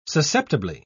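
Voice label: "susceptibly", British English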